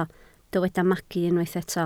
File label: "magu", Welsh